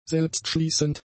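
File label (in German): "selbstschließend", German; adjectives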